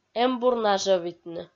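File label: "эмбур нажӧвитны", Komi, female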